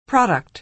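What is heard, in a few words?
"product", American English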